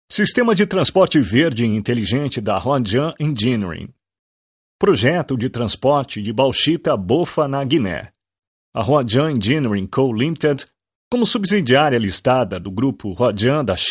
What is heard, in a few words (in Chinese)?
葡萄牙男声